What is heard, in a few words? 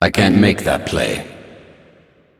Male Ethereal Emote ERROR PLAY